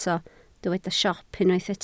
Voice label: "siop", Welsh

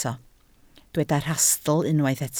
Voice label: "rhastl", Welsh

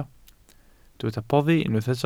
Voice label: "boddi", Welsh

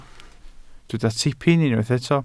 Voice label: "tipyn", Welsh

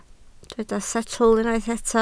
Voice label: "setl", Welsh

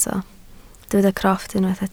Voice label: "crofft", Welsh

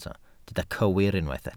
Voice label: "cywir", Welsh

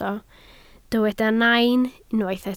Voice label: "nain", Welsh

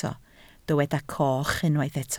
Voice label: "coch", Welsh

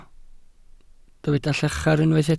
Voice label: "llachar", Welsh

